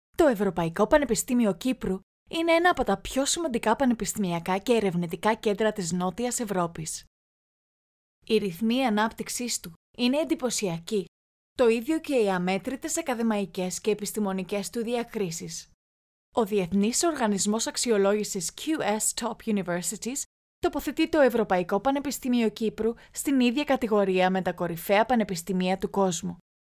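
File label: Greek Female corporate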